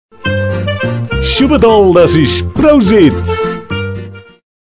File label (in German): prost auf niederlaendisch